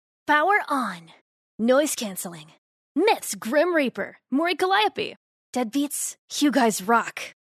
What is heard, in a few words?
ENG